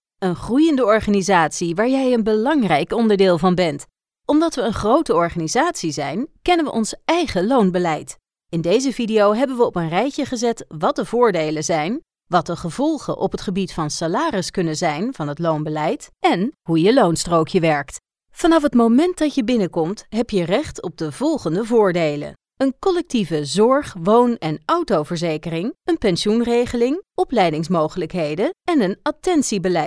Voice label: dutch e-learning